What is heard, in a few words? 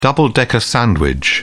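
"double-decker", British English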